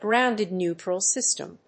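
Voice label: "grounded neutral system", English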